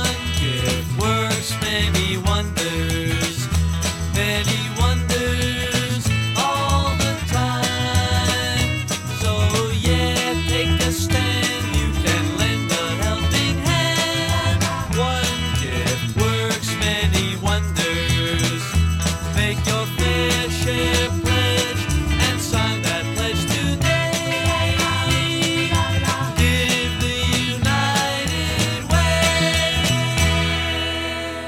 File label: Radio Commercials - United Way Radio Spot; 1967